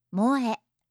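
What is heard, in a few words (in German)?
"moe", japanische Mädchennamen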